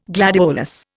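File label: "glair", Indian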